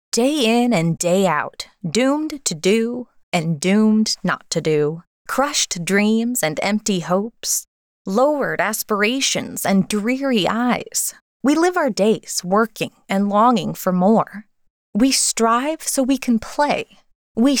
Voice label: male day in day out